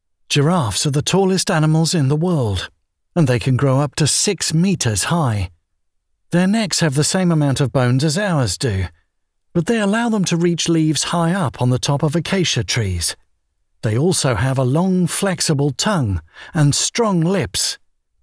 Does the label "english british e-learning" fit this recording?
Yes